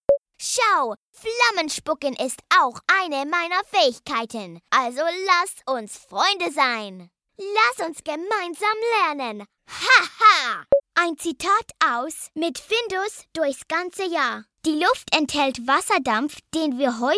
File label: German dialog; DEMO 2020